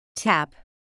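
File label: "tap", English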